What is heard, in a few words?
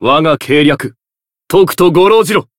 chant, jp; shion